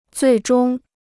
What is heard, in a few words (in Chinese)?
"最终", Chinese